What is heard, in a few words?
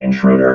"intruder", robot